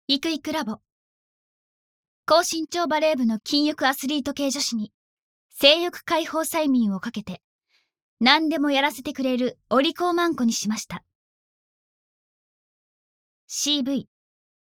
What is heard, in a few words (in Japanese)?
タイトルコール, ASMR